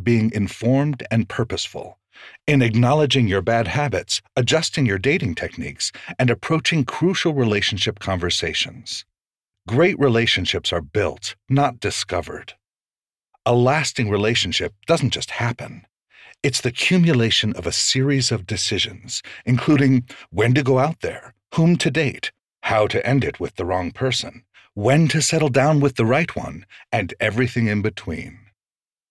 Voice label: Love Nonfiction, audiobooks